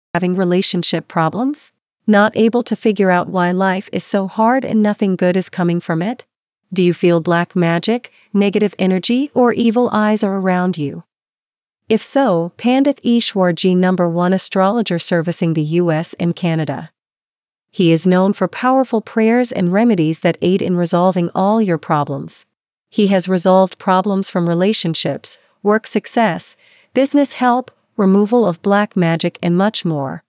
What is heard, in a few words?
English (US), Female